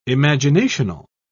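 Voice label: "imaginational", British English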